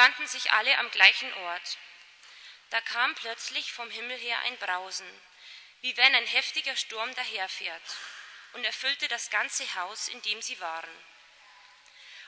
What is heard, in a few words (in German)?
Firmung Lesung